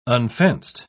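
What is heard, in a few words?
"unfenced", English, uk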